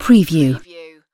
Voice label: "preview", UK female; lynne publishing

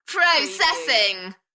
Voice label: "Processing", UK female, Enthusiastic; beanstalk audio

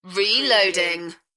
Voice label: UK female "Reloading"; beanstalk audio

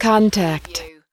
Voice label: US female, "contact"; lynne publishing

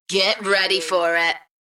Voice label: UK female Get ready for it; beanstalk audio

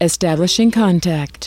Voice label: US female, "establishing contact"; lynne publishing

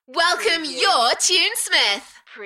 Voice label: UK female, Welcome your tunesmith, Enthusiastic; beanstalk audio

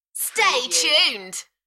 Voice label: UK female, Stay tuned, Enthusiastic; beanstalk audio

